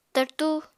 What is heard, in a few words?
"tartu", apatani